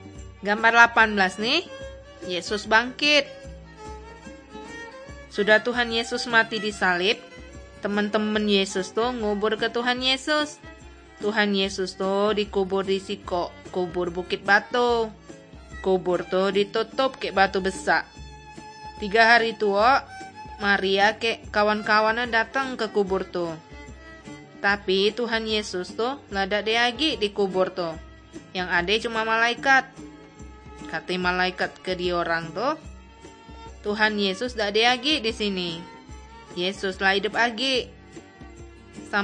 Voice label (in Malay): kabar baik good news malay bangka